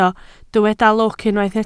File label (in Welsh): "lwc", Welsh